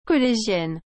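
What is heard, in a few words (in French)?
"collegienne", French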